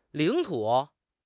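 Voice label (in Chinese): "领土", Chinese; HSK6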